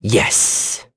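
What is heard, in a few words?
Vox Happy, English; kingsraid; voices heroes en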